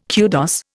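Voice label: "kudos", Indian English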